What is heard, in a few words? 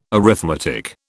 "arithmetic", British